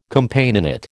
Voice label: "Companionate", American